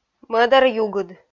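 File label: "мӧдаръюгыд", Komi-Zyrian, female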